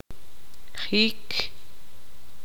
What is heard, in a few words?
tzutujil; recto